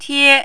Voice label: "tie1", mandarin